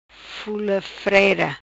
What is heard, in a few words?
"Fula Freira", Macanese